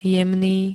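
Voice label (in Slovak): "jemný", Slovak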